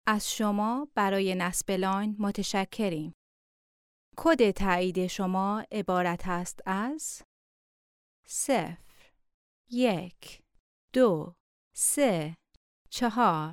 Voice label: female farsi voice over narrative